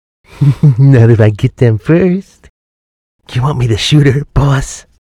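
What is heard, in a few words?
Fiend Eager Dramatic General American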